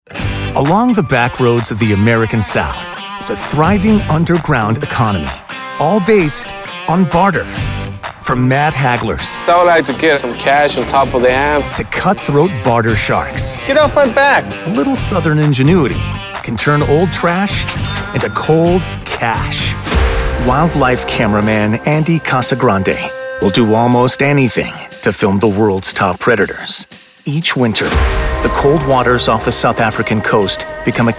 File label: narration men